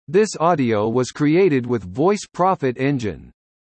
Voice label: English US Male